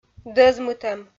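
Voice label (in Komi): "дӧзмытӧм", Komi, female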